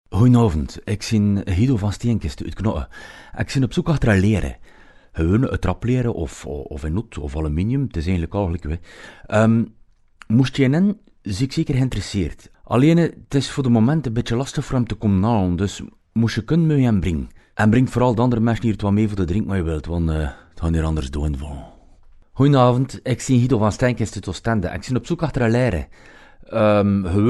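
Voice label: flemish male narration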